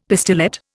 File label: "pistillate", Indian; Dictionary